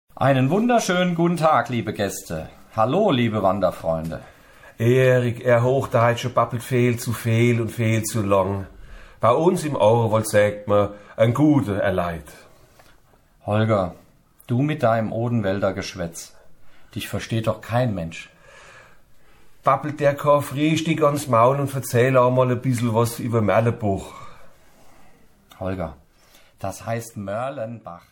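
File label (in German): Begruessung Buergermeister, Mundart; Juhoehe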